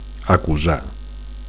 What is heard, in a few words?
"acusar", Catalan; to accuse